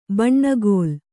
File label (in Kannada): "ಬೆಣ್ಣೆಗಲ್ಲು", Kannada